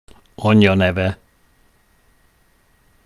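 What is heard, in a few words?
"anyja neve", Hungarian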